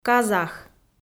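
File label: "казах", Komi, female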